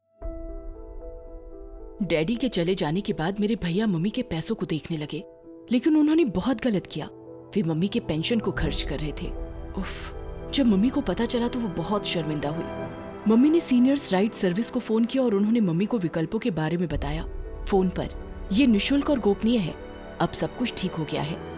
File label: Hindi; Seniors Rights Services; Pension